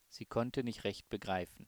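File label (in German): "begreifen", German, male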